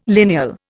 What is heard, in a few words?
"lineal", Indian